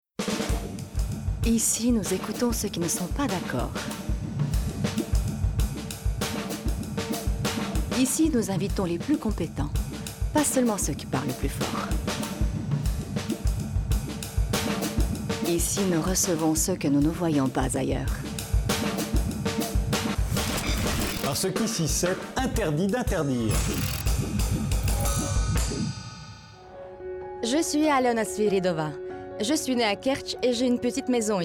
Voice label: FRENCH FEMALE; DEMO REEL